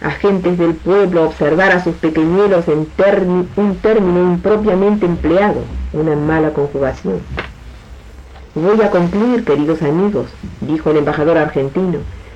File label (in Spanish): Locutora lee texto sobre mujeres de América